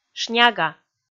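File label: "шняга", Komi, female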